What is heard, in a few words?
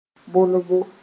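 "bolbo", Mooré; plastering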